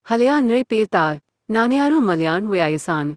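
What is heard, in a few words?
Malayalam, India; iOS 26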